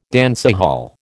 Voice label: "dancehall", American

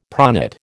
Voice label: "pronate", American

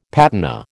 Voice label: "patina", American

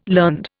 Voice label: "learned", Indian

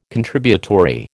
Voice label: "Contributory", American

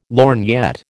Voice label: "lorgnette", American

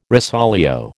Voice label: "rosolio", American

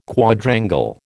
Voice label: "Quadrangle", American

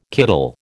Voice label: "kiddle", American

